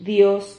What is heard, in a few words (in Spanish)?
"dios", Silabas